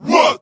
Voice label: what group of men

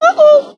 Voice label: "uh oh", cartoon; ooh ahh; uh um

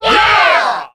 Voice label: "yeah" group of people

